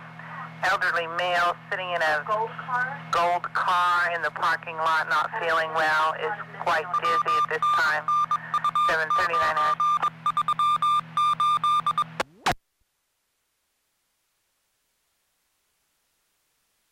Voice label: Medical Call; Midway Oil